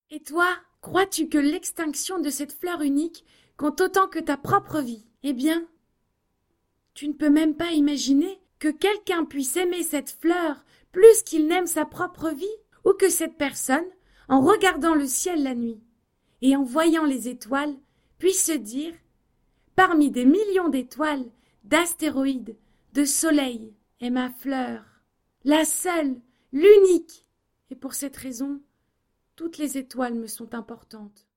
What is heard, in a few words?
Cartoon